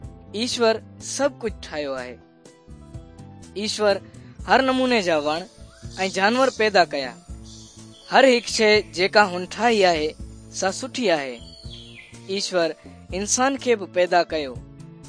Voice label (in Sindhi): good news sindhi dukslinu, drama